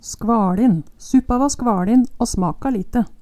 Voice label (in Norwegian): "skva Lin", Numedalsmål